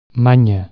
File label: skill, Catalan